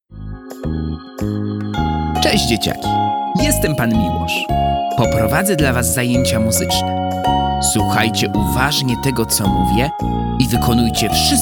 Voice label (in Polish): gimnastyka z panem Powitanie